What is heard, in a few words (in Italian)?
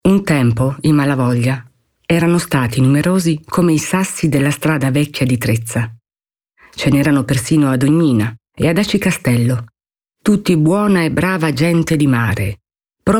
Descrittivo